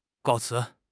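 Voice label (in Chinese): "告辞", Chinese; HSK6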